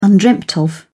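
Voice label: "undreamed of", British English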